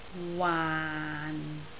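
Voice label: "waan6", Cantonese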